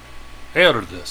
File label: "ALLARDICE" old